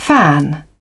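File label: "fan", English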